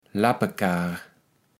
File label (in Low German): "Lappekaar", kaevels platt